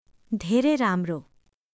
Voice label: Nepali, basic phrases